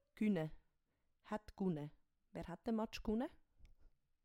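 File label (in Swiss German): "guene", Swiss German; Wortschatz Kapitel 1; Kapitel 1 Hauptwortschatz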